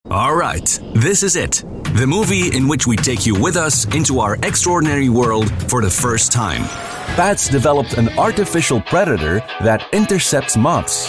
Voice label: English corporate video voice